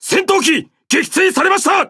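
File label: Japanese Speech Destroyed; Jp; ConsPilots; CatFight; World Of Warships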